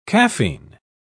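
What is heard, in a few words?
"caffein", English, British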